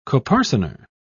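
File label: "coparcener", British English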